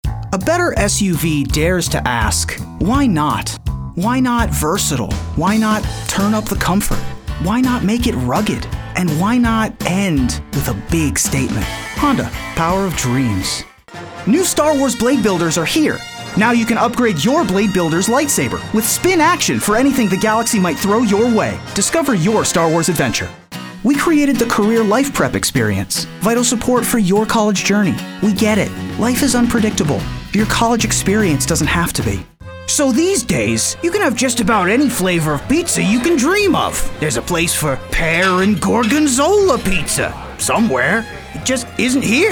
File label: Commercial